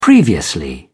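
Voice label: "previously", British English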